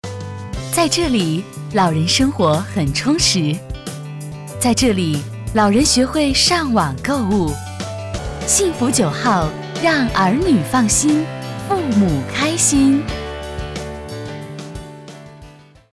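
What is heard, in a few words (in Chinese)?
广告栏目tvc养老社区电视台广告; 北京卫视幸福九号养老社区电视台广告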